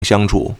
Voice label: "xiang1chu3", Chinese